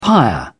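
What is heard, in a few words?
"pyre", British English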